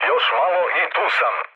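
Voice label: Serbian near waypoint mood med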